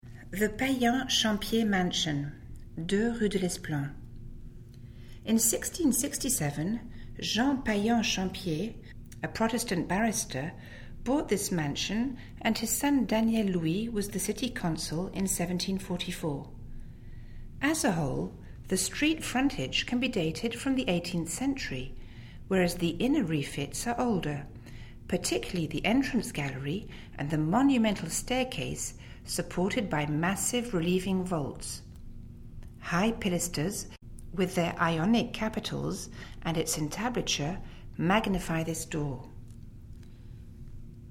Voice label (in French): ANGLAIS; Hotel Payan Champie